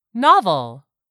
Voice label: "NOVEL", English; 3000 words